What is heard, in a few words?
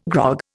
"grog", Indian